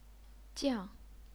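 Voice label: "jiang", Chinese, fourth tone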